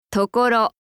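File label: "tokoro", Japanese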